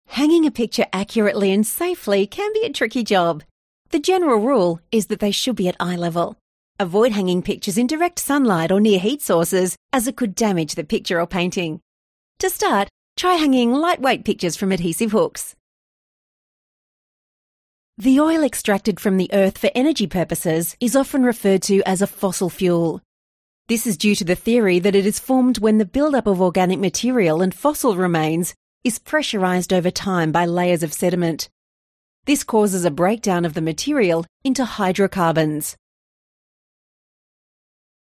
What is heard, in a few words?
AF CORPORATE